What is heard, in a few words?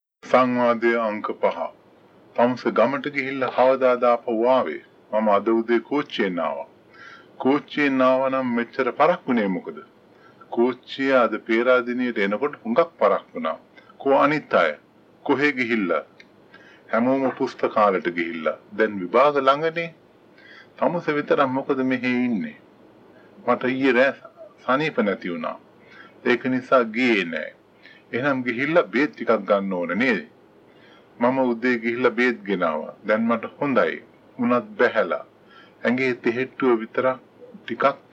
Conversation, Sinhala; IntroSpokenSinhala